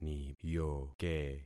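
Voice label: "niyoke", concatenated syllables; Affixing experiment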